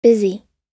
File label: "busy", US